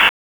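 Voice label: Police Southeast District Dispatch; Raleigh; wake; p25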